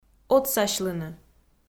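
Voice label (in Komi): "отсасьлыны", Komi, female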